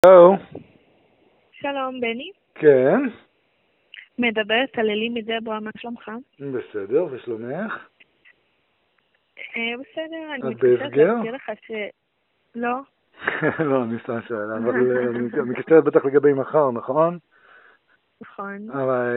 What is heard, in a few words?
call recording